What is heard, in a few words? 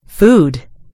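"food", American English; gcompris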